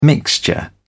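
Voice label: "mixture", British English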